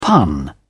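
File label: "pun", British English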